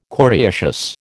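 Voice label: "coriaceous", American English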